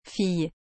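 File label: "fille", French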